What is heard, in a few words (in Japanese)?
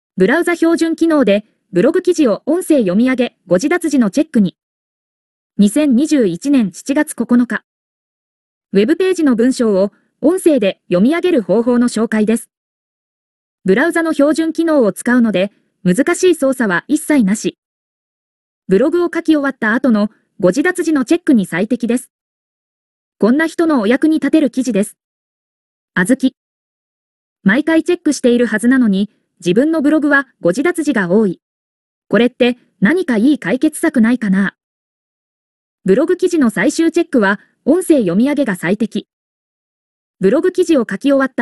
音声読み上げ; 大福日記